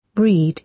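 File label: "read", British English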